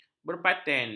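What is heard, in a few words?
"berpaten", Malay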